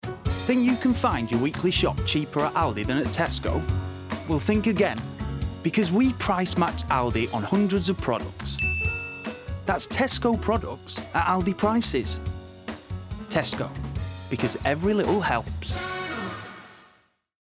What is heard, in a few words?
Enthusiastic engaged direct; Tesco